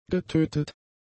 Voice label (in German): "getötet", german; adjectives